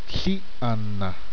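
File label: "blue", Zuni language; Blue (West)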